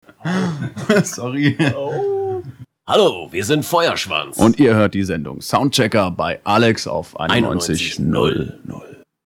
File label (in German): Station ID mit Sorry